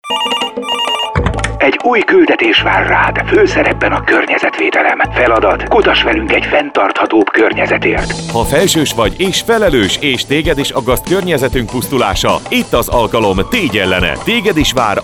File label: radio spot